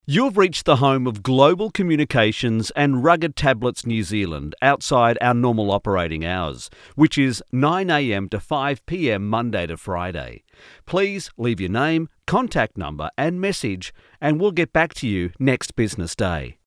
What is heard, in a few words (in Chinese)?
外籍英男 电话应答系统